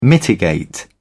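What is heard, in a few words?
"mitigate", British English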